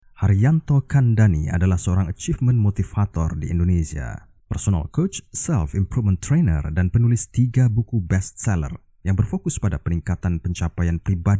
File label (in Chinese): 印尼语男-干音